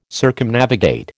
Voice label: "Circumnavigate", American